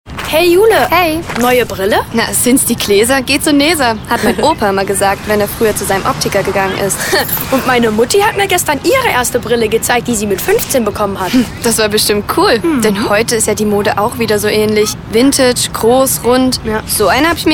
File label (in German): Dialog Werbung Kinderstimme